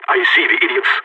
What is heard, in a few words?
radio enemy spotted, balkan; vo